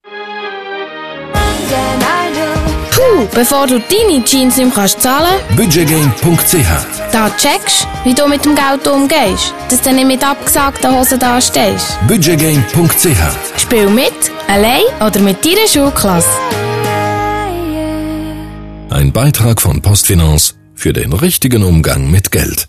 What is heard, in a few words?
radiospot